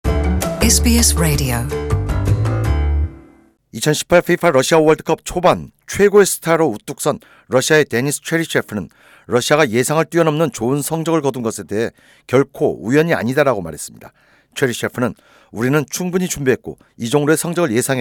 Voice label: korean; SBS Korean; '러시아 영웅’ 체리셰프 “우연이 아닙니다”